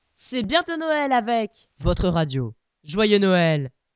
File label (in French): c est bientot noel avec joyeux noel, jingle